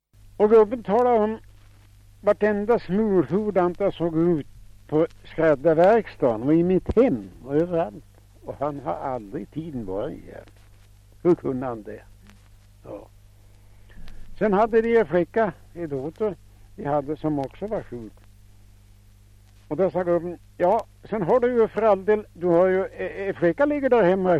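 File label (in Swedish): Fellingsbro Västmanland, dialekter, Svenska sagor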